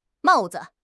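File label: "mao4 zi5", Mandarin Chinese; HSK3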